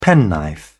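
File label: "penknife", English, British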